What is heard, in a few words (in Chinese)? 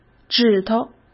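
"指头", Mandarin Chinese; hsk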